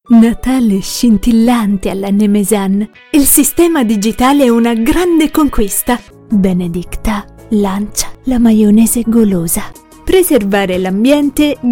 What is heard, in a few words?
Italian Voice Over